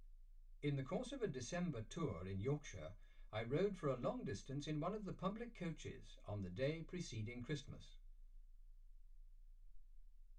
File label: male speech english